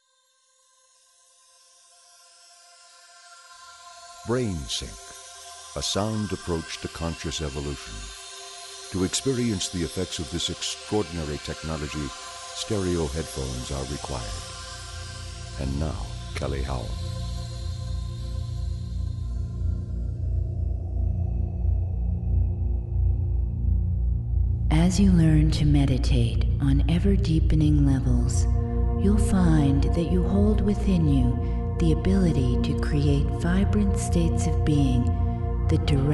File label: 7 minute guided meditation